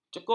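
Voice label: "cekut", Malay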